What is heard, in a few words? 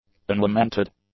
"unlamented", English; adjectives